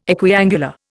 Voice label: "equiangular", Indian